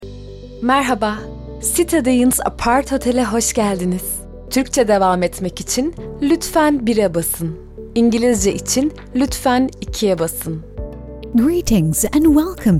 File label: Prompt Welcome